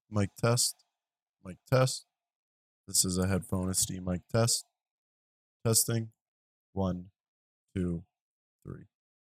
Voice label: Noisy environment with background noise

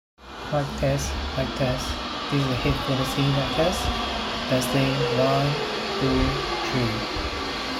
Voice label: SoundLiberty 79 Noisy

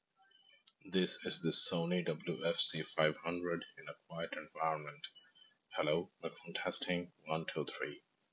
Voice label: Sony WF-C500 Quiet

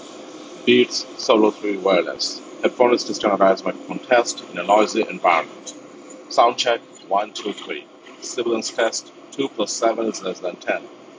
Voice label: Wireless Noisy; Beats Solo3

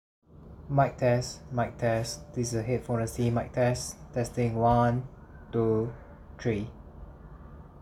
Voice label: KZ Z1 Quiet

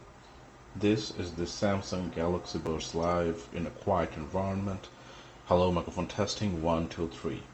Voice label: Buds Live Quiet

